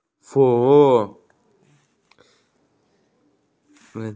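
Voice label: "service", Mandarin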